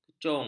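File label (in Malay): "kecung", Malay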